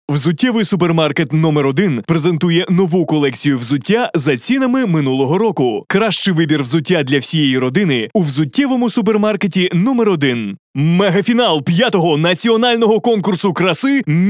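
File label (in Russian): голоса, Ukrainian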